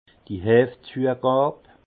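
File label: "heefzuegab", Alsatian, Haut Rhin, levurage; vin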